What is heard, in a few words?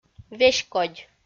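"веськодь", Komi, female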